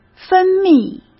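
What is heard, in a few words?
"分泌", Mandarin Chinese; chinese-flashcards; hsk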